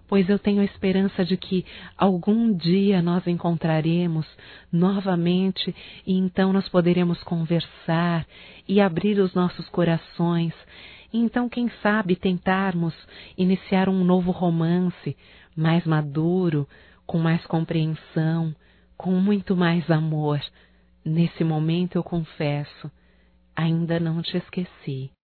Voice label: ROM PARA EX(F), Românticas fem

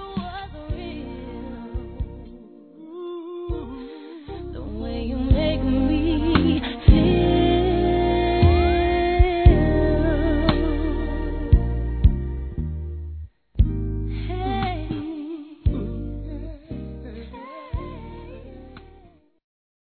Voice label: Natal Para Amigo Feminino; Telemensagens; Natal e Ano novo

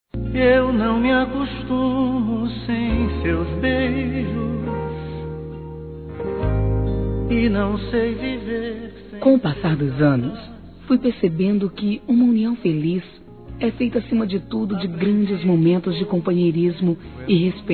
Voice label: Bodas de Ouro Feminino, Telemensagens; Bodas e Casamento

